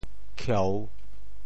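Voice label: "khieu3", Teochew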